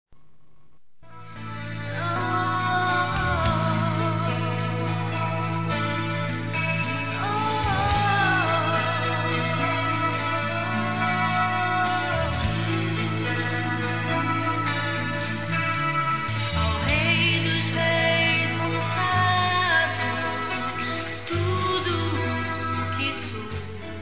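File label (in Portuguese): ANIVERSARIO, feminino; Telemensagens; Telemensagens 2017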